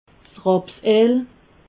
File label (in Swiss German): "rapseel", alsace